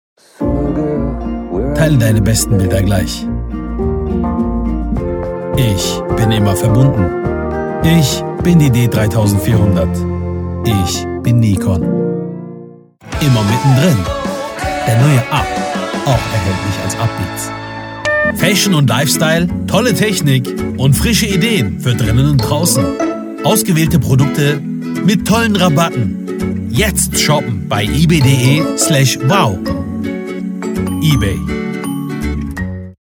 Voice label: german voice over male narration

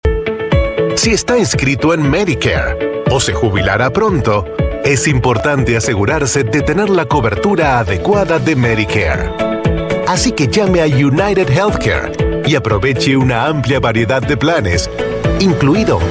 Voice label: spanish voice over male narrator

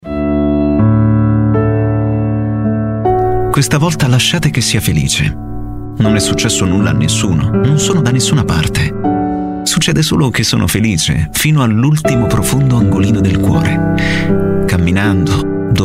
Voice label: Italian voice over, male narrator

